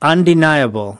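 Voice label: "undeniable", English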